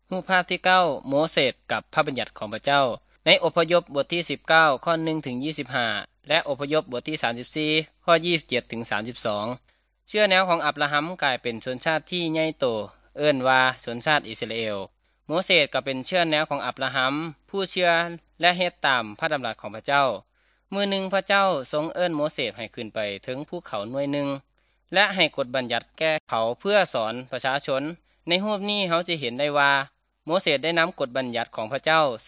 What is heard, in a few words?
good news thai northeastern